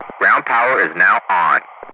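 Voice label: "ground power is now on", English, Ground Crew; DCS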